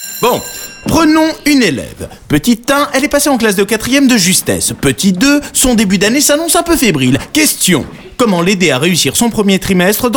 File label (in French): RADIO; ACADOMIA; Pré fidélisation